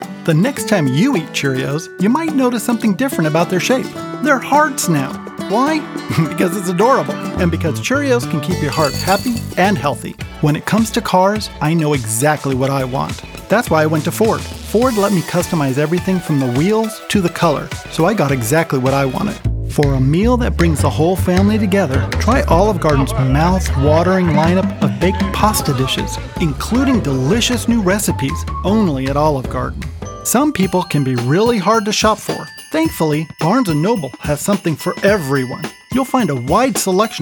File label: Commercial